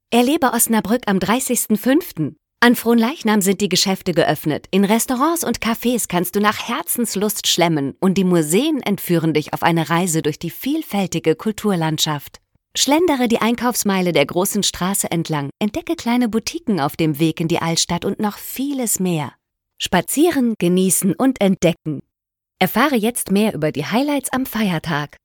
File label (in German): werbung; osnabrueck